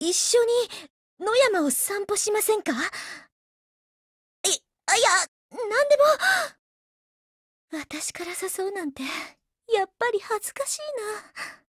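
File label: DIALOGUE WEDDING Japanese; CM901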